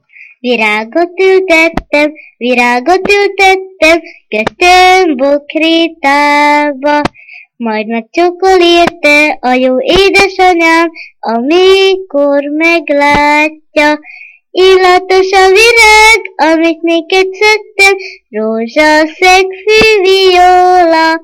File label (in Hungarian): nyelvjaras gyujtesek